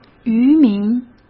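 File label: "渔民", Mandarin Chinese; hsk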